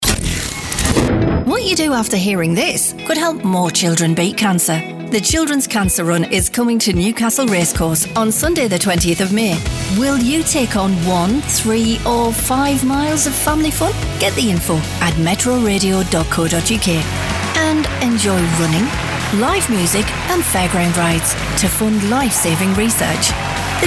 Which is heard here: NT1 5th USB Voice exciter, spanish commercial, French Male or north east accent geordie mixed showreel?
north east accent geordie mixed showreel